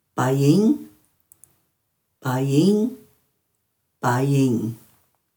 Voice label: "baying", Dhurga